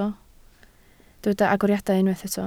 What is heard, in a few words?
"agoriadau", Welsh